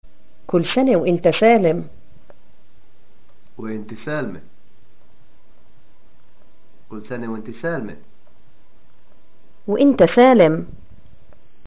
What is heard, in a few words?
greeting birthday, arabic